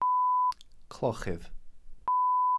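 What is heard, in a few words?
"clochydd", Welsh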